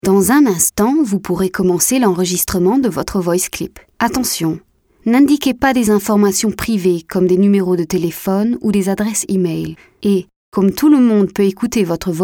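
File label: French telephone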